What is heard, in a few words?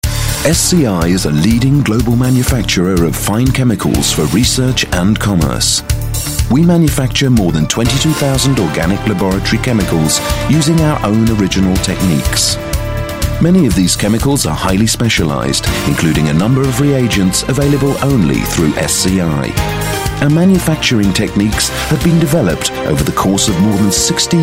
UK English Corporate Read, Male